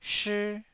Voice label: "shii1", taiwanese Syllables